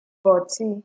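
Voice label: "butty", Nigerian Pidgin, female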